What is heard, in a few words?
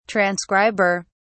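"transcriber", British English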